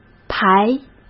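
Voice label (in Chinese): "牌", Mandarin Chinese; chinese-flashcards; hsk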